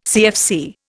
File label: "CFC", Indian